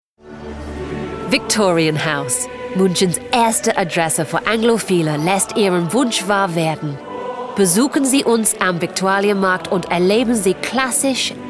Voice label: DEUTSCH VO; Munich